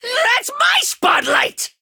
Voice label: Male Imp Attack